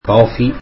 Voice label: tamil coffee

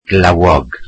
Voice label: welsh rainy

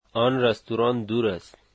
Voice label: that restaurant is far, dari

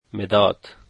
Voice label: "pencil", Dari

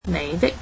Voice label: "your", Cantonese

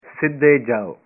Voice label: punjabi Go straight

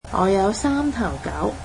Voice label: I have three dogs, cantonese

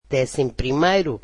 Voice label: "eleventh", Portuguese

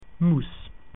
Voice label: "mouse", Latin

